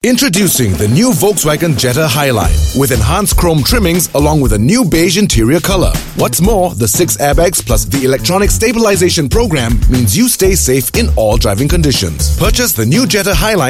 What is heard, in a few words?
Asian English; VW The New Jetta HighLine